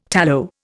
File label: "tallow", Indian